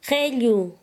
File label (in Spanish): "amarillo", Quechua Chanka